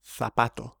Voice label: "zapato", Spanish pronunciation; allophones; s exercises